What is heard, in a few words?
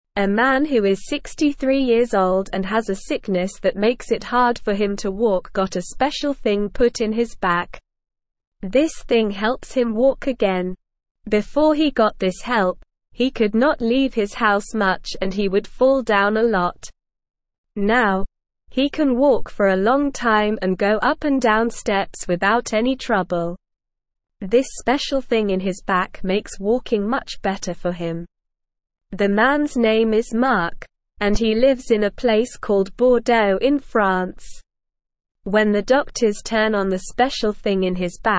Beginner SLOW Reading, English; English Newsroom; Special Thing in Back Helps Man Walk Again